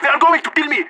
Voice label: radio taking fire; player vo leet

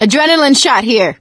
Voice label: adrenaline, survivor voice; Left 4 Dead 2; producer

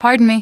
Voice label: pardon me, female; npc; Garry's Mod; hl2

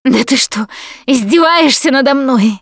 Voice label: going to die, russian; Producer

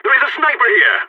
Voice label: sniper warning, vo balkan; Counter-Strike Global Offensive

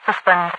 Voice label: suspend, overwatch radio voice; Garry's Mod; hl2

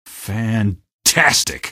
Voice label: "fantastic", male; npc; Garry's Mod; hl2

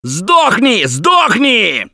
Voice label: Battle Cry; Gambler

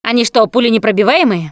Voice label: see armored, Russian; Producer

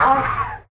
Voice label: feral hurt vox; Beyond the Sword; Units

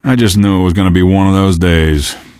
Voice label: question, male; Garry's Mod; hl2

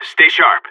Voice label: pep talk, seal; player vo

